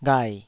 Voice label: "ghai3", Taiwanese syllable